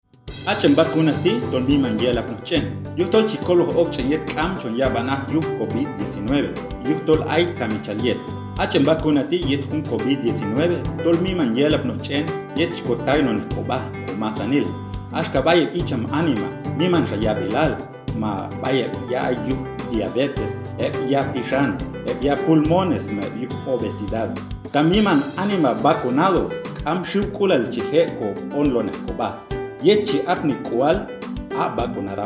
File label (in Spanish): Beneficios de vacunarse Qanjobal